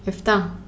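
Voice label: "efta", female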